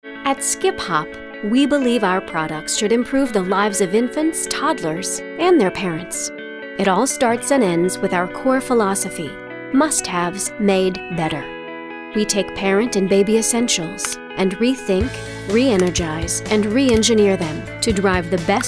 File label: Narration